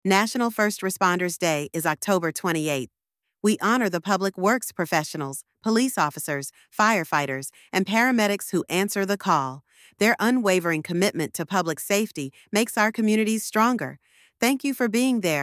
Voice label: Female Voice